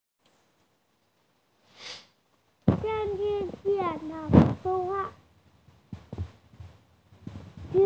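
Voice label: "zhi3", Mandarin Chinese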